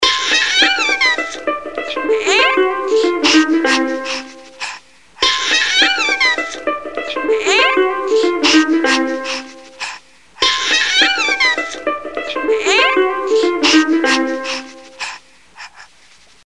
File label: Baby talk; Ringtones